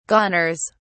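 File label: "goners", British English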